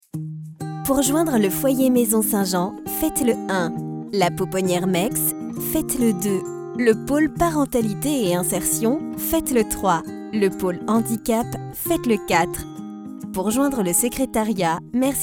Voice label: IVR; Téléphonie